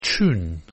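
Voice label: "tune", English word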